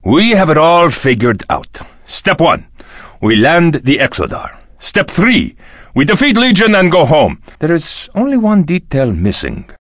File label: Draenei Male Joke